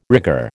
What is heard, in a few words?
"rigger", American